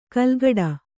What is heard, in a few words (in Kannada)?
"ಕೆಲಕಡೆ", Kannada